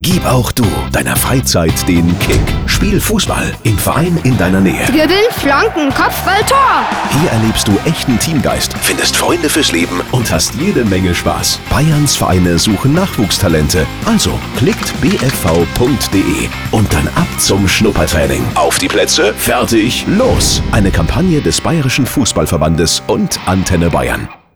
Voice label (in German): promo trailer; auf die plätze fertig los; bfv